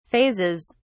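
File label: "phases", British English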